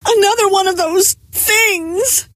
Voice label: another one, scientist female